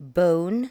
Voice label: "bone", english

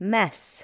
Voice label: "mess", english

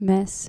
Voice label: "mess" dutch

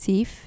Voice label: "zeef", Dutch

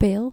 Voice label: "pijl", Dutch

